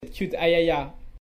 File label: cute ayaya